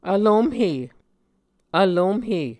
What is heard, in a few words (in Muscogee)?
"vlomhe", Muscogee